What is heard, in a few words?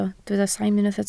"saim", Welsh